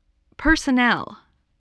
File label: "personnel", voice, English